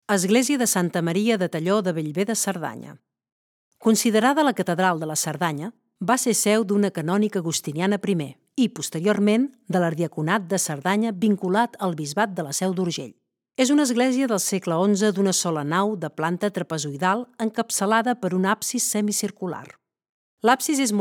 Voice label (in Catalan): locucio catala; santa maria tallo